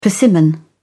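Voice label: "persimmon", British English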